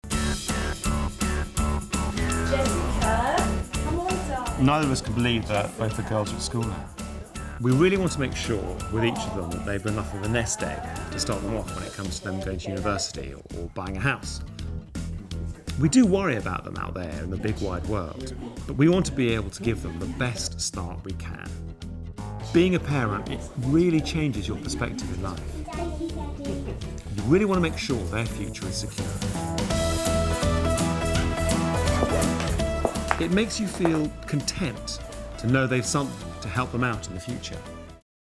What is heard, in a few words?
Tax Year Countdown Dad; HSBC